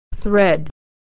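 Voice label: "thread", American English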